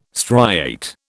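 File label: "striate", British English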